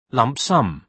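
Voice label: "lump sum", British English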